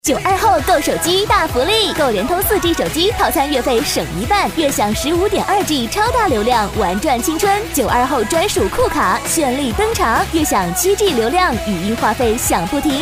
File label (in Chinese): 广告 女 活力轻快; 联通女1